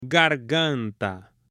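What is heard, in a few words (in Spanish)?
"garganta" Spanish; CUERPO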